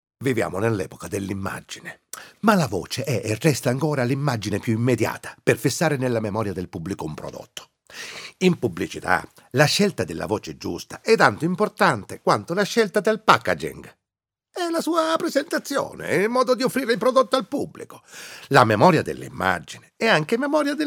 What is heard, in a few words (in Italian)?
siciliano